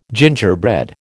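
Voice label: "gingerbread", American English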